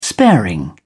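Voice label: "sparing", British English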